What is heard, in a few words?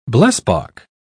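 "blesbok", British English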